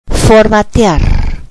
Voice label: "format to", Spanish

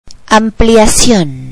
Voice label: "increase", Spanish

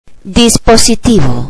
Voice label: "device", Spanish

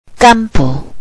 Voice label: "field", Spanish

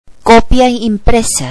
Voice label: "hard copy", spanish computer

